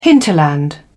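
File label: "hinterland", British English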